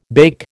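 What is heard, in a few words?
"bake", American English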